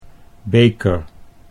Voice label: "beker", Dutch

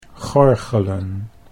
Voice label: "gorgelen", Dutch

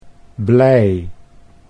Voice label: "blij", Dutch

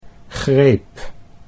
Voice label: "greep", Dutch

